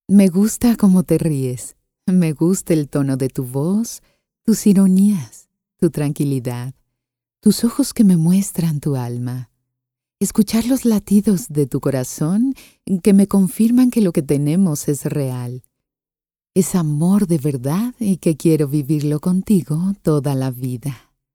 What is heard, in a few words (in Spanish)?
Voz sugestiva